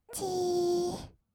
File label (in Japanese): "じーっ", kawaii voice; ASMR; 色々なワンショット